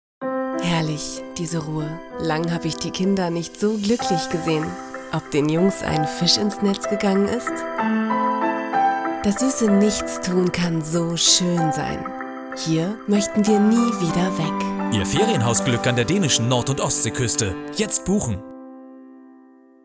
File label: Commercial TV Cinema